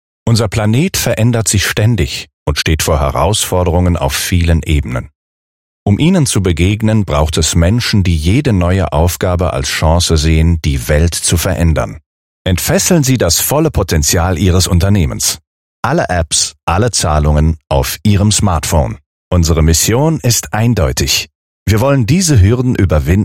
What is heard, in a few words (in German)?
Trailer Werbung OHNE MUSIK; 9LUFS